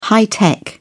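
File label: "high tech", English, British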